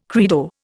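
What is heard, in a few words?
"credo", Indian English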